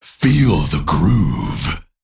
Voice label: Male Crystal Golem Hero Power